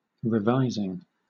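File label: "revising", English; pronunciations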